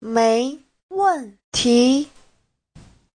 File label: "mei2wen4ti2", chinese